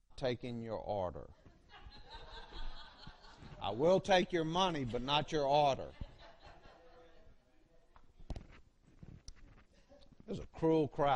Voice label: Preaching